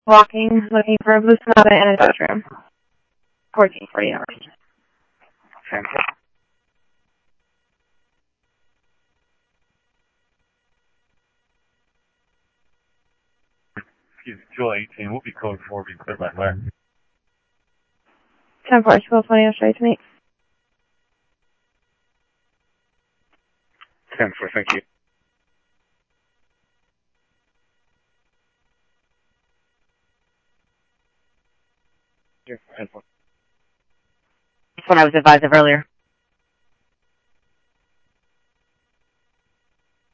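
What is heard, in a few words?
police department, scanner audio trunk; H-Scottsdale; SCTSDLE PD - PD2 ScottsdalePolice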